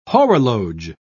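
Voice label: "horologe", British English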